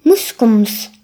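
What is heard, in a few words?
"whisper", Breton; gcompris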